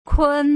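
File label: "kun", Putonghua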